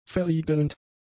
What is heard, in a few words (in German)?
"verriegelnd", german; adjectives